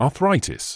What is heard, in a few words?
"arthritis", medical english uk; ah-THRIGH-tiss; ɑːˈθraɪ.tɪs